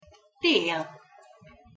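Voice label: "tel", Hungarian pronunciation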